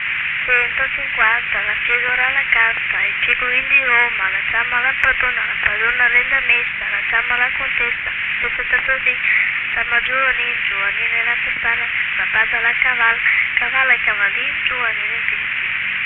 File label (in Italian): filastrocca; cento cinquanta